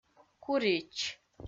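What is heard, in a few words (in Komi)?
"курич", Komi-Zyrian, female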